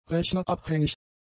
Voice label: "rechnerabhängig", German; adjectives

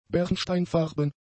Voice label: "bernsteinfarben", German; adjectives

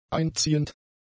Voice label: "einziehend", german; adjectives

